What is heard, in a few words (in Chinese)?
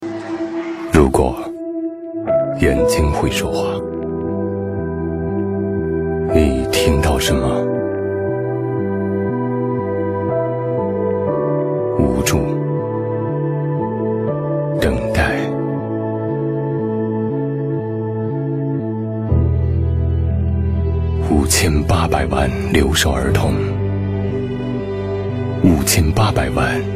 公益广告; 央视留守儿童公益广告